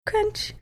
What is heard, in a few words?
quietly saying crunch